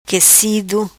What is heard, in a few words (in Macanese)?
Quecido, Macanese